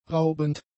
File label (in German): "raubend", German; adjectives